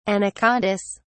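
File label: "anacondas", British English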